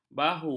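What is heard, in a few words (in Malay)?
"bahu", Malay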